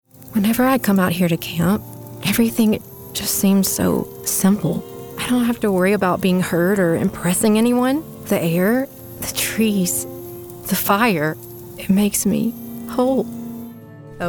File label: Anime; Produced By Shawn Gann Seth Aulds